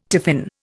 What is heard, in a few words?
"tiffin", Indian